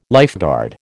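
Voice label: "lifeguard", American English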